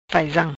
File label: "Faizam", Macanese